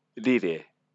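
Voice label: "rire", Maori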